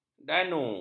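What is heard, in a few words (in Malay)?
"danu", Malay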